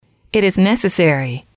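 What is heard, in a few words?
it is necessary, English, female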